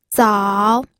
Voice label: "zao3", pinyin